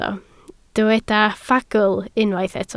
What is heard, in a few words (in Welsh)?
"ffagl", Welsh